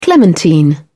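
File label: "clementine", British English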